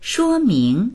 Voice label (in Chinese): "shuo1ming2", voices; 说明; 説明する、証明する